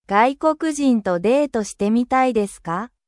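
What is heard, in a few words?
Japanese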